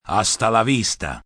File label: "Hasta La Vista", Goodbyes, male, foreign, Spanish; see you again; human voice speaking; VoiceBox; Twistedtracks